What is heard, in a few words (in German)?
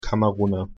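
"Kameruner", German; pronunciation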